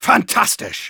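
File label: Engineer cheers, German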